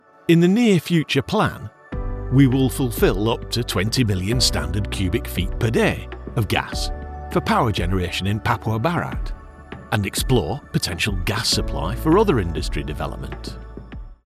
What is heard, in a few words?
Energy Explainer